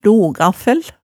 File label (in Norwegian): "logaffæl", hemsedalsmaalet tale